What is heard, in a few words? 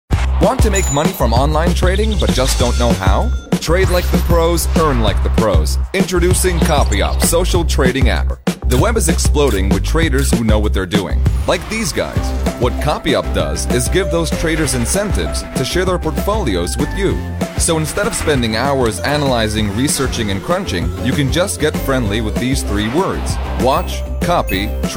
English commercial